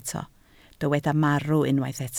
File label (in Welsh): "marw", Welsh